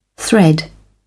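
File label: "thread", British English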